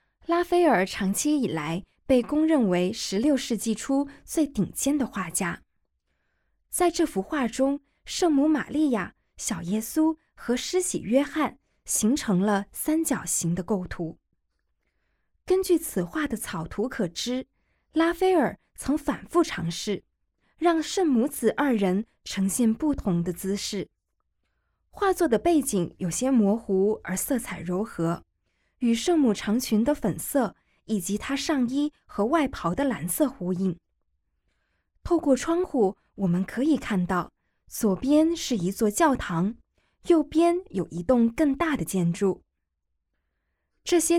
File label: Mandarin, audio guide; g9-botticelli-to-van-gogh